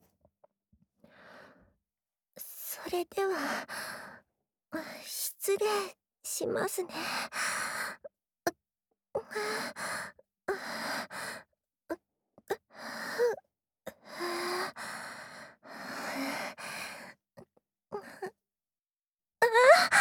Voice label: ヒロインボイス

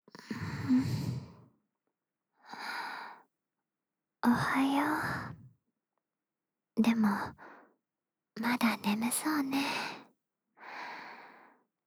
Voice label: ん……おはよう。, 癒やしささやきボイス; 憂妃の共依存癒やしささやきボイス

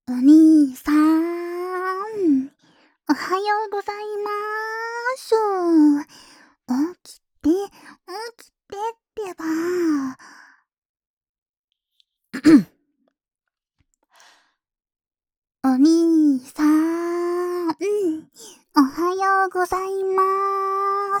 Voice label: アラームボイス

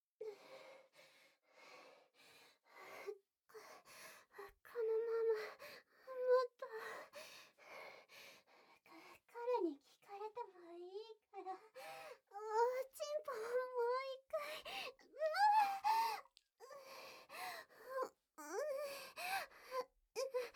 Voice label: ささやき耳舐め, ASMR; 愛しているの; SEあり

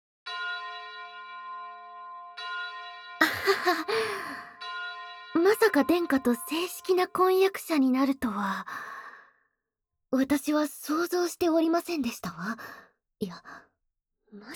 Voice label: 後日談。正式な婚約, ASMR

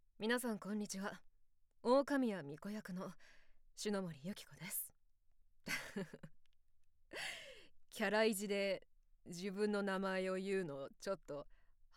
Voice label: フリートーク, ASMR

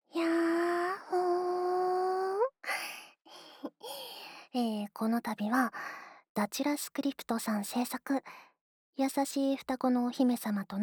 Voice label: フリートーク, ASMR

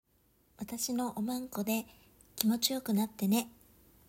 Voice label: 特典セリフ, ASMR

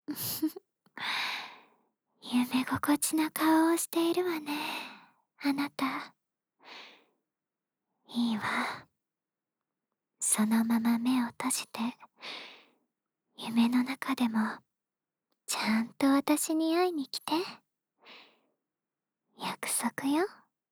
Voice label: ふふ……夢心地な顔をしているわね, ささやきボイス; 憂妃の共依存癒やしささやきボイス

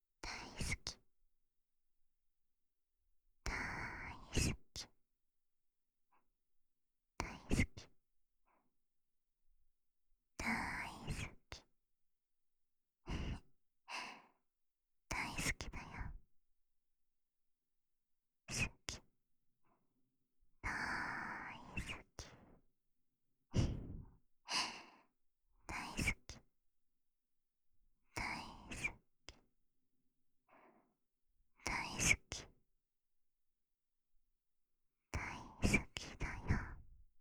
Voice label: 囁き淫語連呼; SEなし

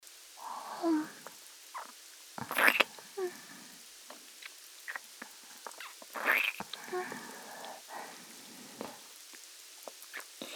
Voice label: ピロートーク,添い寝,ささやき,キス【BGMなし】, ASMR; お射精しても放しませんから…

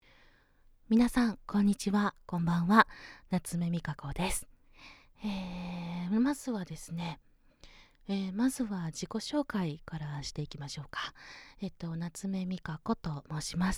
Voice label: アナウンサー系綺麗ボイス人妻

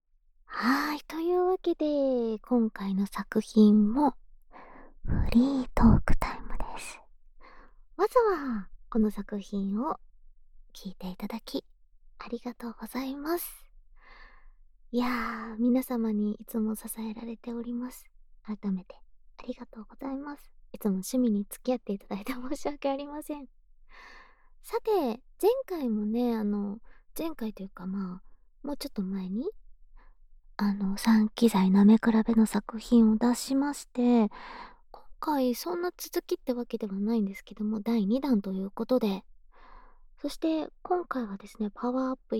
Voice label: 乳首舐め 音 比べ フリートーク

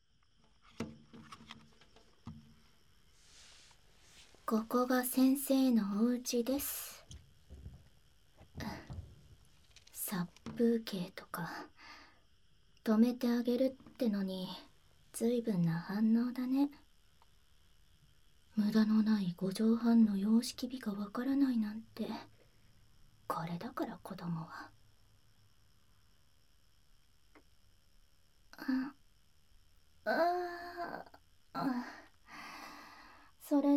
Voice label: 安息添い寝【BGMなし】, ASMR

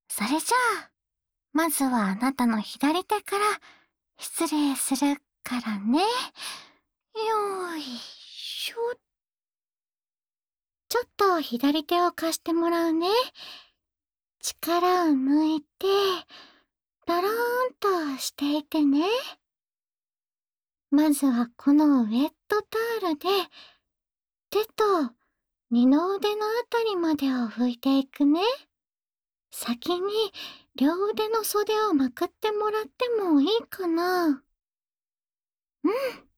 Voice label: ぬくもりいっぱいの彼女の体温を感じながら両手のマッサージ, ASMR

